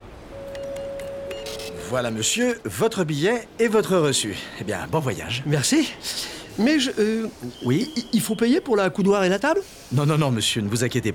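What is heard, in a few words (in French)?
Radio, spot; Esso; Le Train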